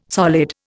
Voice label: "solid", Indian